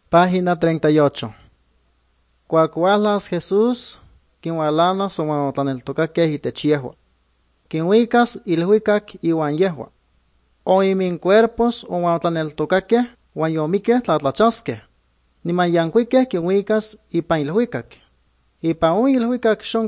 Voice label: good news, nahuatl guerrero chilapa